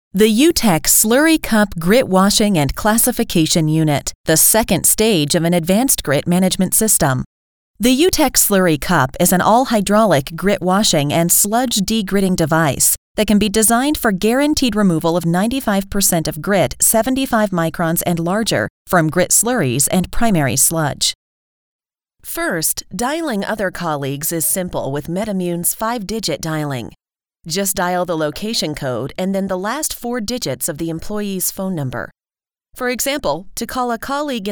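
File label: Explanation voice over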